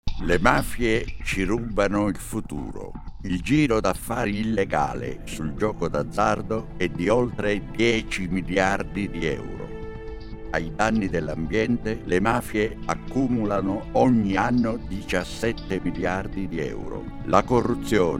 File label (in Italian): radio; libera